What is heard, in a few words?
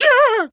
Pain; Wizard